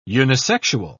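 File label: "unisexual", British English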